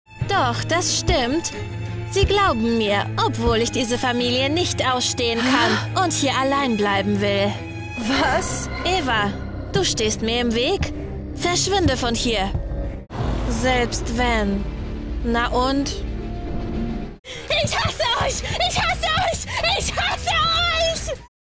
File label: Teen Psycho Thriller Dubbing